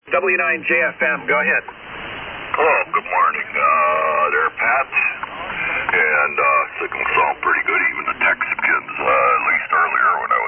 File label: Yaesu FTDX-560 & Shure 444 Mic; Algoma, Wi.